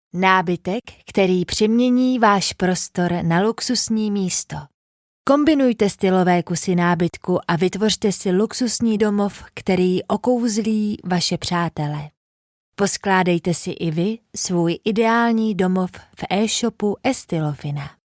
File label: Czech Female, Kurumsal, Reklam, Eğitim; Kadın; Çekçe